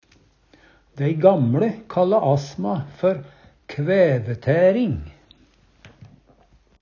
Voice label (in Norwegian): "kvæve", Numedalsmål